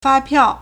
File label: "fa1piao4", Chinese